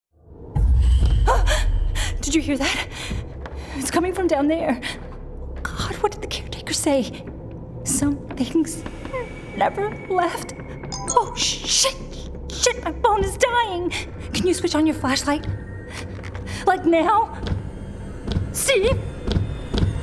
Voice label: Gaming Frightened Teen US; Frightened Woman (US)